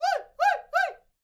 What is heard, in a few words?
MEXICO VOICE, MEXICAN; ILIO; Vocal Planet VOL-5